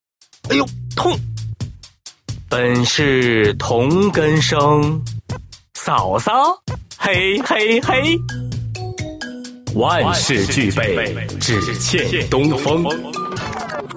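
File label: male other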